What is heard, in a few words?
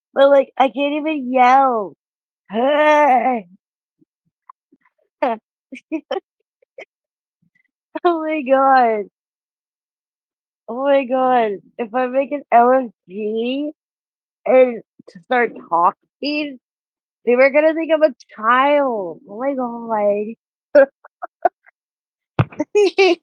voice message